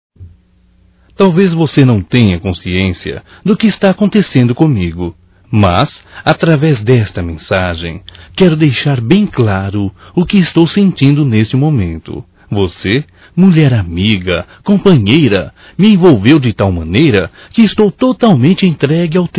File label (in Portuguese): Romântico Masculino; Românticas